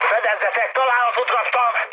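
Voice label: Hungarian aircraft damaged mood high, speech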